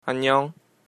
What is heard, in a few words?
goodbye, Korean